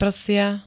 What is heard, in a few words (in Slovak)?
"prsia", Slovenské